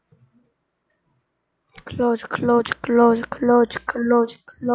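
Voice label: "clock", spoken word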